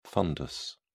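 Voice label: "fundus", British English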